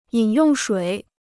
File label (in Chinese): "饮用水", Chinese